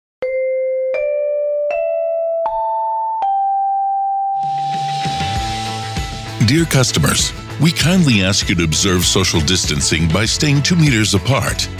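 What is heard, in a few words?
PSA English USA Male IVR Telephone on Hold; Live Announcer; Ivr Phone Greetings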